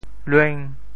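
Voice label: "lueng5", Teochew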